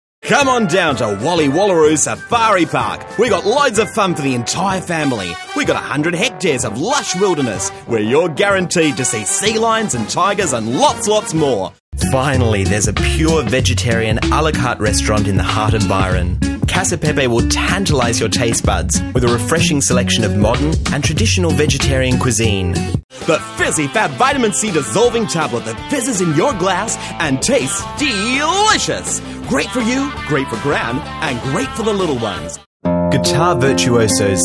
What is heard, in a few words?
TVC